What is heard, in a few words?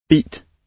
"beat", gb